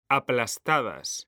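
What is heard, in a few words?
"aplastadas", Spanish, male; mekanta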